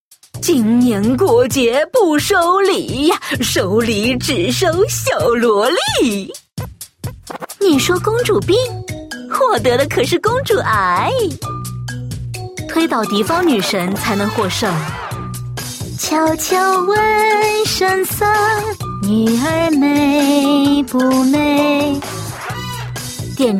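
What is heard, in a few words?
female other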